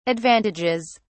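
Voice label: "advantages", British English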